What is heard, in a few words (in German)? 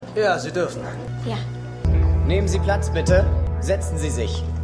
Tatort Rechtsmediziner Forensikerin Gerichtsdiener, Stimmproben; Law & Order